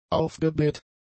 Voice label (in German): "aufgebläht", German; adjectives